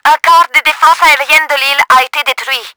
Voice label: QUARTER, french; Battle Engine Aquila; XBOX

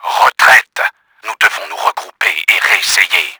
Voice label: FALL BACK, french; Battle Engine Aquila; XBOX

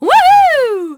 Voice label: fem

